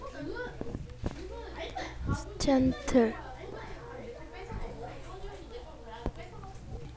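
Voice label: "gentle", spoken word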